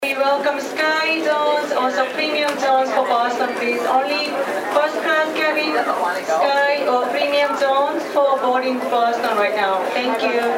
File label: airport announcement